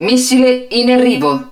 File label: hud incoming missile, Italian; XBOX; BattleEngineAquila